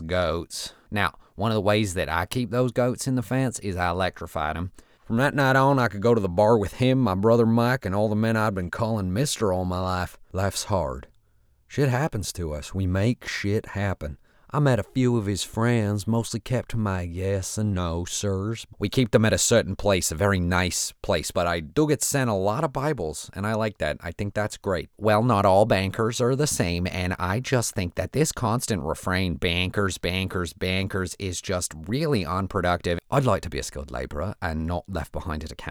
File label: Dialect Reel, voice over